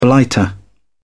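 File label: "blighter", British English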